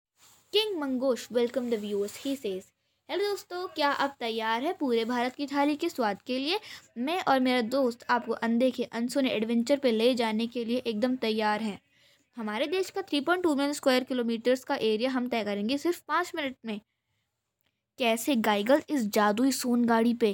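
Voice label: hinglish, Child Voices; Delhi, India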